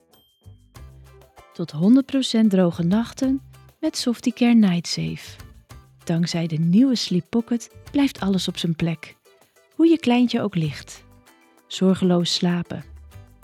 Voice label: voice over; Softicare